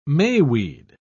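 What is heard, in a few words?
"mayweed", British English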